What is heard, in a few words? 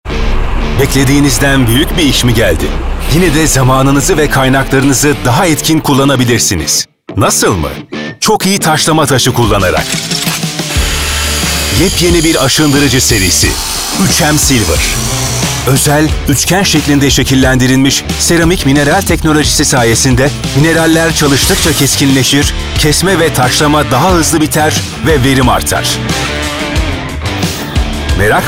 turkish promos